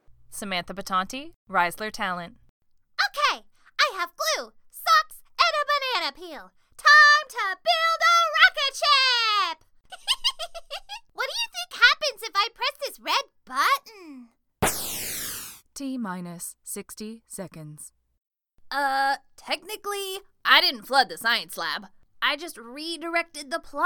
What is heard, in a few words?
Animation, women